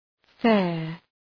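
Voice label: "fare", English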